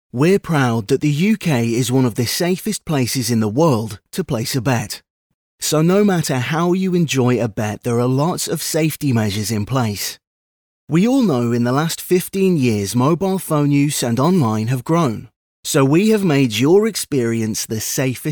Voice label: Animation

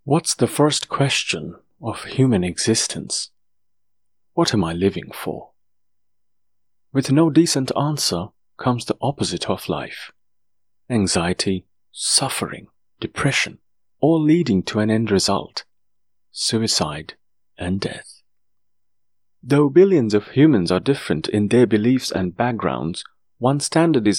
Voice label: English Meditation Audiobook

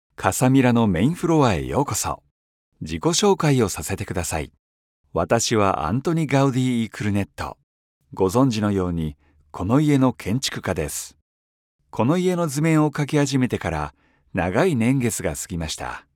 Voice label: presentation

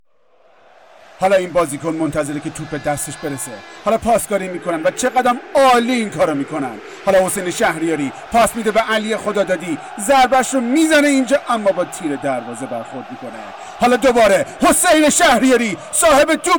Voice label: Persian Football Commentary

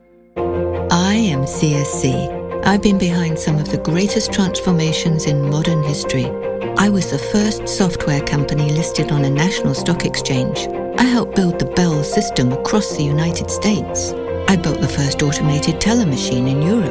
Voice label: NARRATION

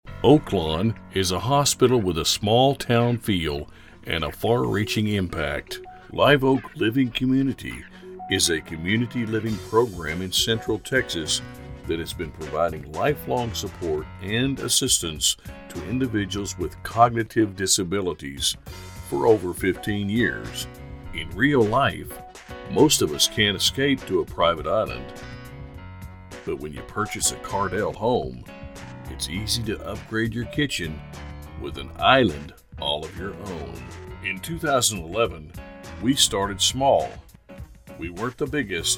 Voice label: commercial narration

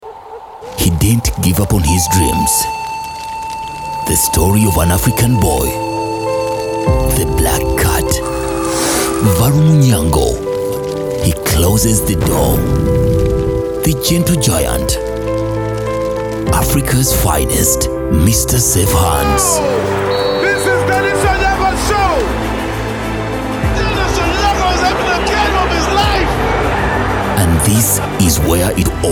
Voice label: trailer; Golden Hands

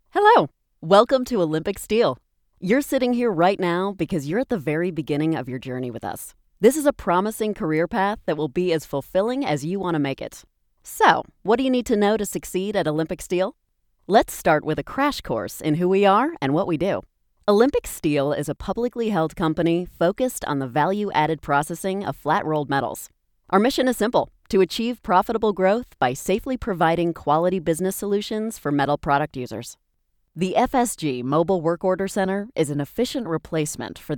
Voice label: ELearning Educational

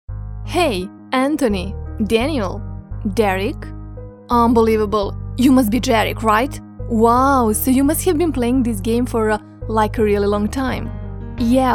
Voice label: English Character Radio Promo

